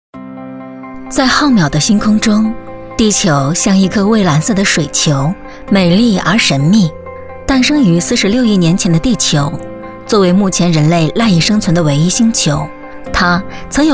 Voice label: 女, 专题 地质博物馆

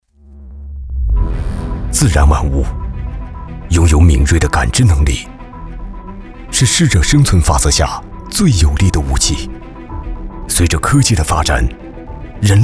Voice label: 男 高端广告; 物联广告

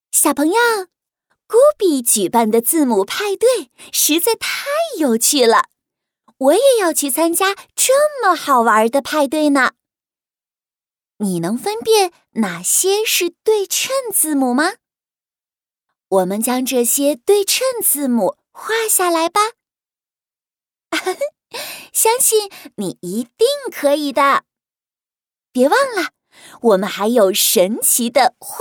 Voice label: 女 儿童美术课; 咕比AI课

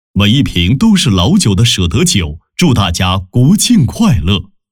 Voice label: 男 电视广告国庆篇; 《舍得酒》

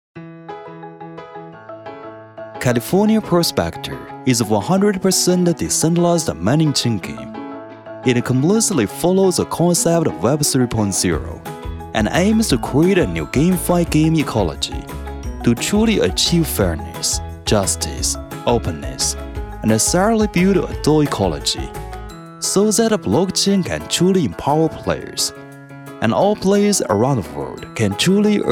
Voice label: 男 英语 专题片

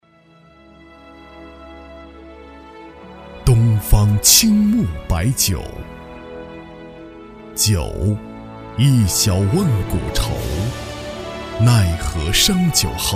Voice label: 广告 酒, 男

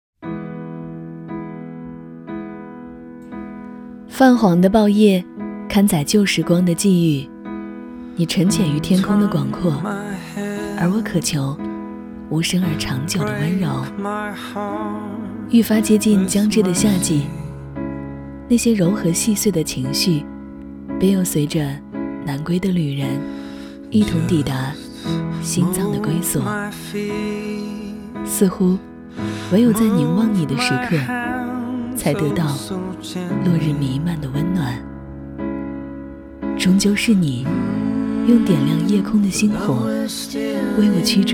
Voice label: 深情告白 走心旁白, 女

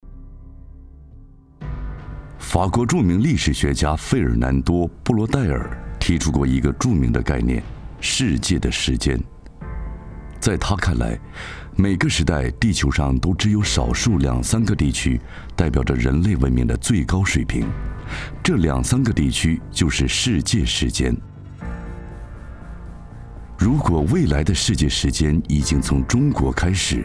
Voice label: 男, 宣传片 银行; 中信银行

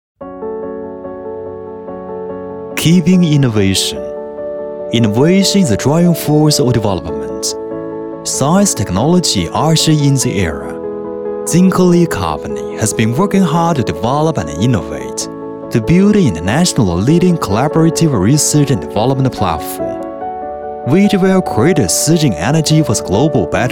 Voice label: W男, 英语企业宣传片, 大气力量; 金科力

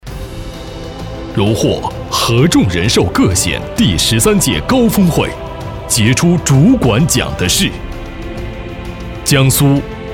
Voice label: 男 晚会颁奖

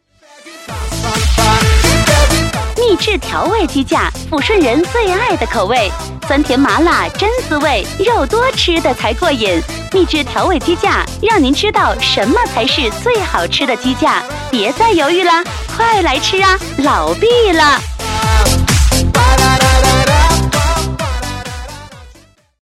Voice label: 女, 促销 激情有力; 美味鸡架

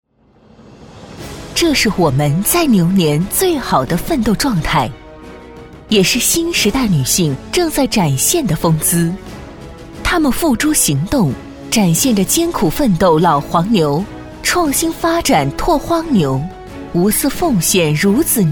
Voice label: 女, 专题片-大气激情; 妇联

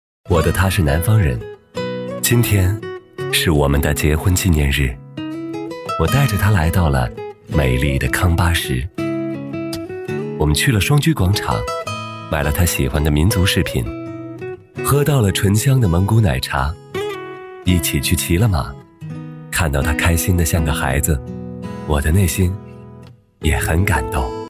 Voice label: B男 走心旁白; 致十年前的我

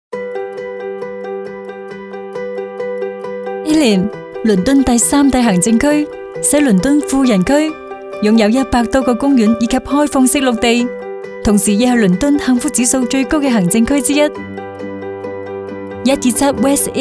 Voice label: 粤语 时尚活泼 伦敦地产宣传, 女

